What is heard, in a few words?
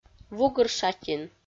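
"вугыр шатин", Komi, female; audio dict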